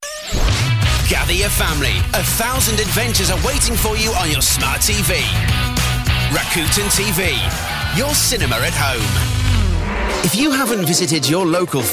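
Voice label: male, UK English, commercial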